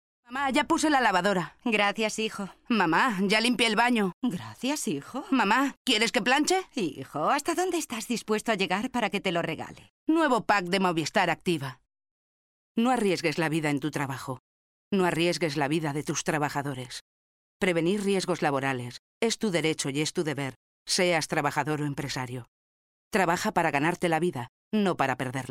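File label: spanish female character; voice demos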